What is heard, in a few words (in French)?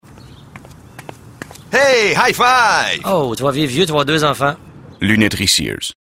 radio pub spot